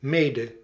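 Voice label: "mede", Proto-Indo-European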